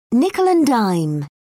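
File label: "nickel-and-dime", British English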